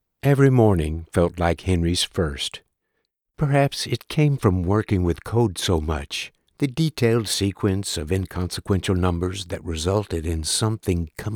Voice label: Male Female Dialogue Psychological Thriller, narration; William